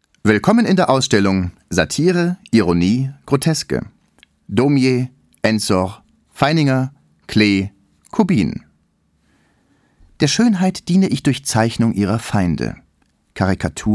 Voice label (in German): AUDIOGUIDE sachlich Intro Audioguide; Zentrum Paul Klee